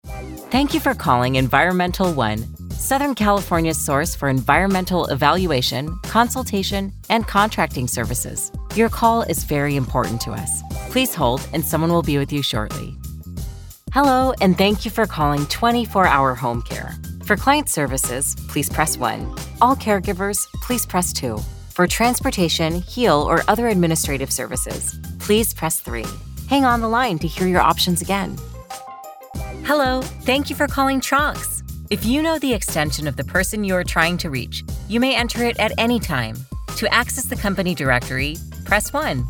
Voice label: VO IVR Welcoming Helpful